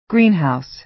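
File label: "greenhouse", American English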